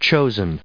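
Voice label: "choose", US English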